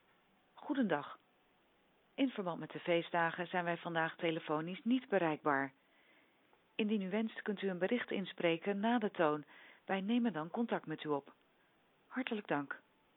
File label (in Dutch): feestdagen gesloten voicemail; Simmpl